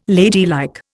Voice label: "ladylike", Indian